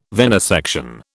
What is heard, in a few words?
"venesection", British